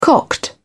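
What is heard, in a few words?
"cocked", British English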